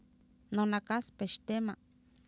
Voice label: nonakAaspextEema, Oapan minimal pairs female; Oapan minimal pairs 1998

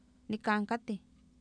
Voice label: nikaan katih, Oapan, female; Oapan minimal pairs 1998

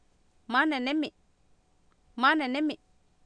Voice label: "mAanenEmi", Oapan, female; Oapan minimal pairs 2000

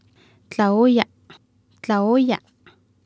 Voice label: Oapan minimal pairs, female; tlaooya tetl; Oapan minimal pairs 1998

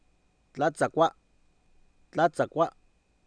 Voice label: "tlAatsakwA", Oapan, male; Oapan minimal pairs 2000

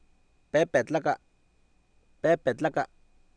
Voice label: "pEepetlAka", Oapan, male; Oapan minimal pairs 2000

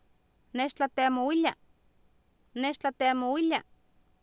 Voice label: "neextlateemowilia", Oapan, female; Oapan minimal pairs 2000

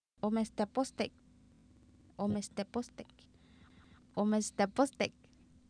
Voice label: "oomestepostek", Oapan, female; Oapan minimal pairs 1998

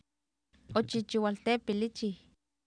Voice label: "oochiichiwaltEepilIchiw", Oapan, female; Oapan minimal pairs 1998

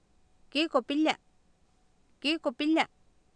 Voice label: kIikopIlia, Oapan minimal pairs female; Oapan minimal pairs 2000

